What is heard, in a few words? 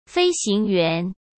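"飞行员", Mandarin Chinese; hsk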